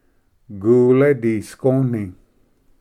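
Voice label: "gule disgoni", Cherokee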